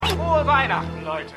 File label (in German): TV Stimme; X Factor